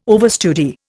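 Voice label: "overstudy", Indian English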